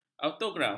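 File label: "autograf", Malay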